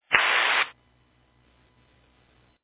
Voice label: Fire Dispatch